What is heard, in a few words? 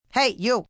Hey You F, female; Calls, attention